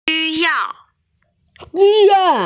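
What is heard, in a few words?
"xu1yao4", Mandarin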